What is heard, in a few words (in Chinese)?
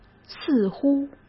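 "似乎", Mandarin Chinese; hsk; chinese-flashcards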